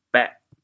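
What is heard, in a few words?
"bêh4", Teochew, chaoyang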